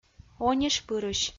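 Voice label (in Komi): "онишпырысь", Komi, female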